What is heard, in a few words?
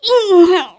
voice kid critical